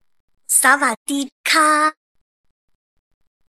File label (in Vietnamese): giong nu noi xin chao